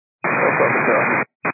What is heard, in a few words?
122 925MHz, scanner radio